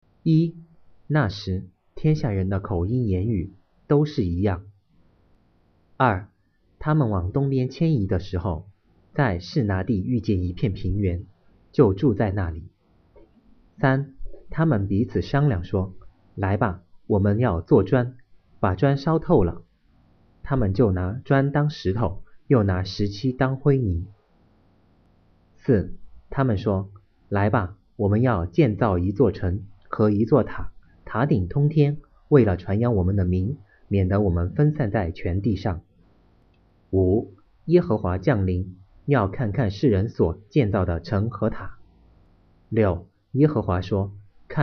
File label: mandarin